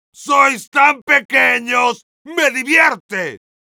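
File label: Heavy taunts, spanish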